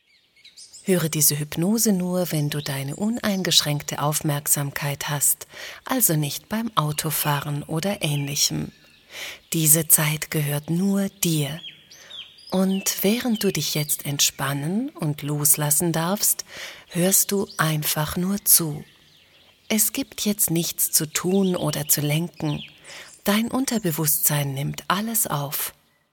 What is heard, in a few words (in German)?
Hörprobe, hypnosen; Entscheidungen treffen mit Leichtigkeit